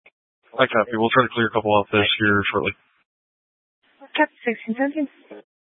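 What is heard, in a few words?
Police Dispatch, Scanner audio recording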